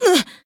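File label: Voice damaged, Japanese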